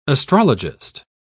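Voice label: "astrologist", British English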